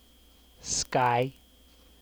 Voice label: "sky", en syllab; kdeedu; klettres